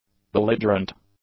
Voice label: "belligerent", English; adjectives